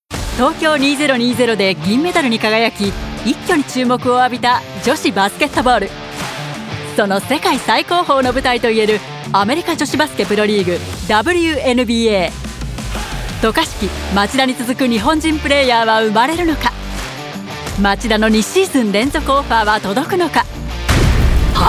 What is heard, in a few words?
japanese promos